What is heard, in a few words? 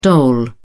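"dole", British English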